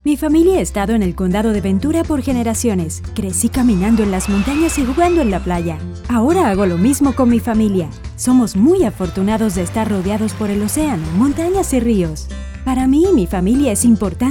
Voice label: General Spanish